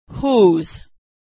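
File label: "whose", American English; vowel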